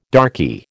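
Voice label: "darky", American English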